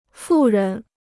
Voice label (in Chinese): "富人", Chinese